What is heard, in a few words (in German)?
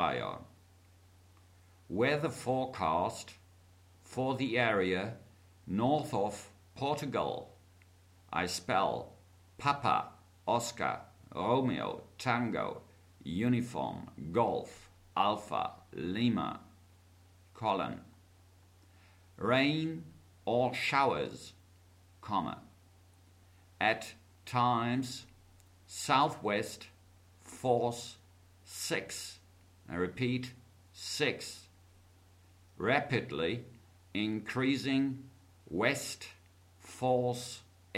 Seefunktext